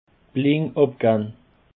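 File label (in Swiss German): "blind", Alsatian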